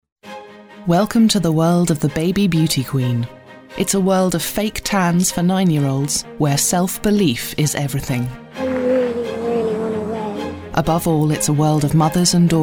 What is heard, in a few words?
Narration